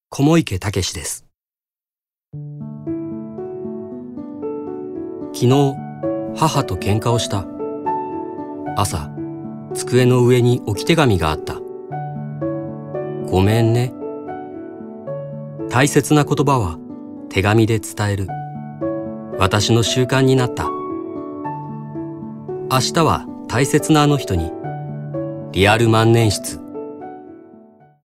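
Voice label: commercial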